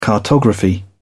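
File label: "cartography", English, British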